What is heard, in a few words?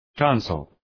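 "tonsil", English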